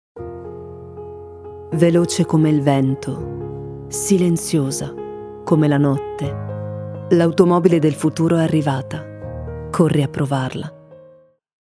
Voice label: italian automotive

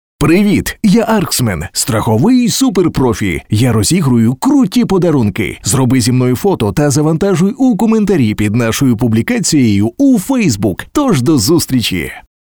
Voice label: ukrainian animation

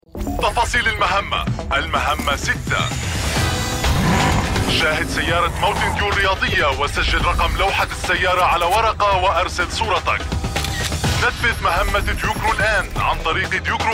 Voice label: Arabic promos

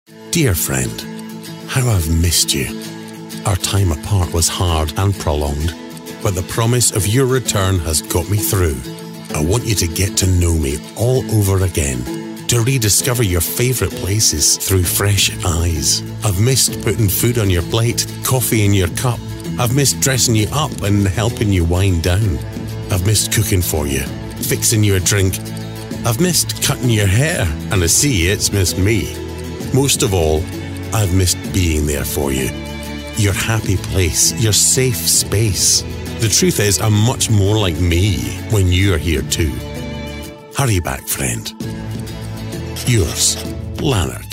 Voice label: English Scottish promos

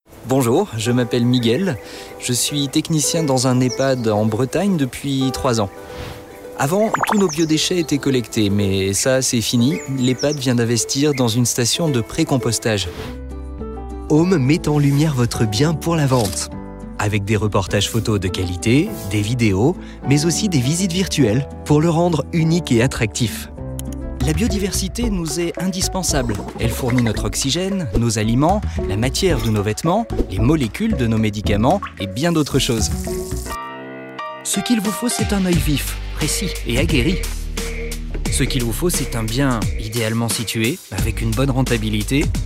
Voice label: french explainer videos, french voice actors

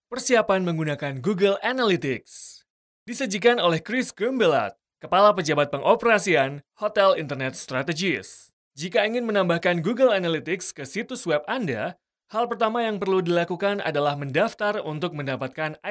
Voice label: indonesian corporate videos

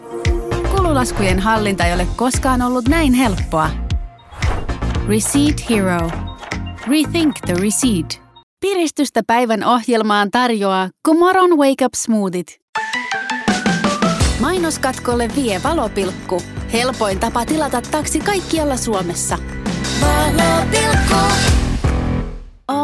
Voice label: finnish commercial; Finlandés; Finlandia

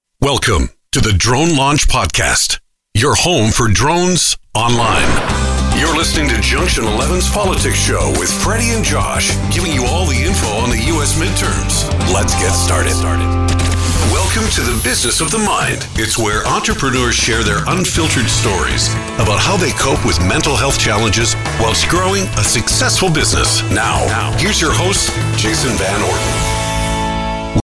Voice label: english canadian podcasts

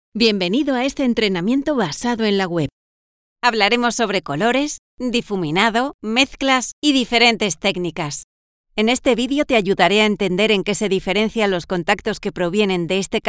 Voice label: spanish e-learning

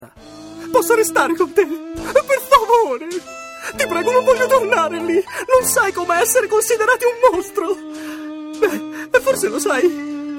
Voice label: italian animation

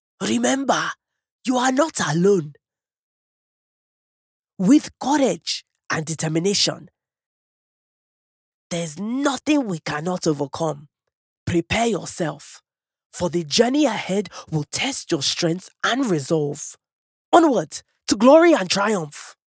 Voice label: english african video games

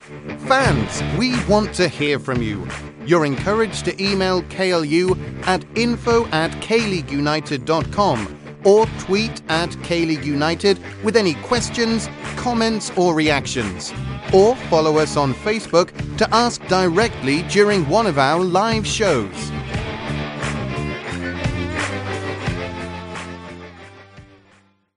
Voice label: English British podcasts

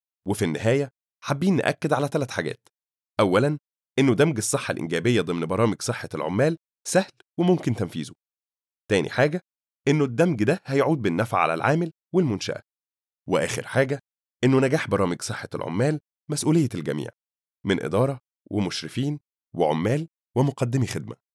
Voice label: arabic narration

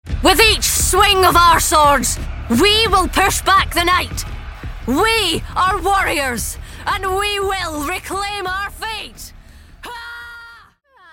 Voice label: english scottish video games; british

